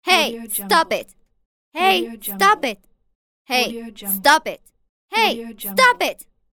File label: girl voice irritated hey stop it pack